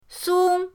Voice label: "song1", pinyin; pinyindct-open

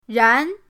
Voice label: "ran2", pinyin; pinyindct-open

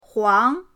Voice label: "huang2", pinyin

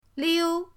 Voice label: "liu1", pinyin; pinyindct-open

